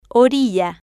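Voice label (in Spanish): "orilla", Spanish, female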